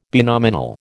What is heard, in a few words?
"binominal", American